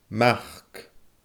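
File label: "mac", Scottish Gaelic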